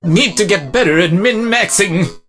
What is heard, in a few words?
meeple hurt; 奥利